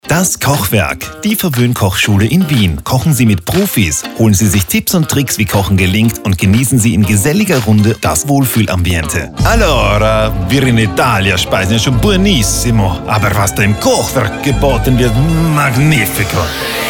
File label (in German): Radiospot; Das Kochwerk